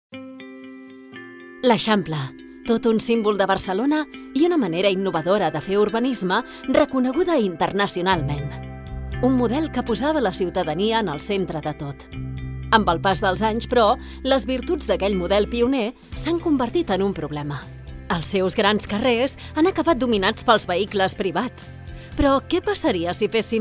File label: catalan political ads; professional Spanish and Catalan voice actor